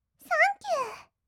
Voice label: "サンキュー", kawaii voice; 1200 kawaii voice!!; 色々なワンショット

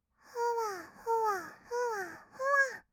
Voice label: ふわふわ, kawaii voice; ASMR; 合いの手; 1200 kawaii voice!!

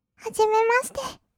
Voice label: "はじめまして", kawaii voice; 挨拶

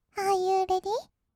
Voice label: アーユーレディー, kawaii voice; 音ゲー

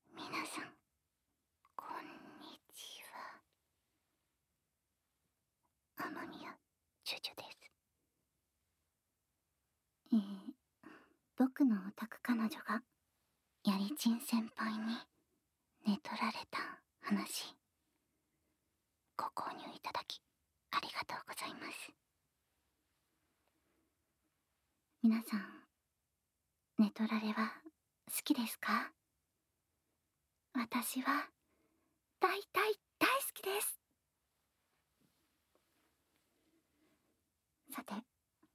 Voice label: フリートーク; ASMR

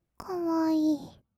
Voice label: 「かわいい」, kawaii voice; 1200 kawaii voice!!; 色々なワンショット

